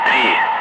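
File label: Russian speech, Ka-50 Wingman; Digits; DCS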